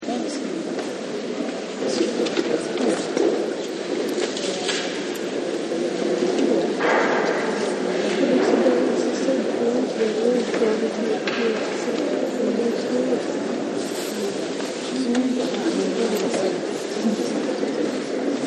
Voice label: Talking Hushed Tones, Crowds; Backgrounds